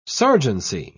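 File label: "sergeancy", British English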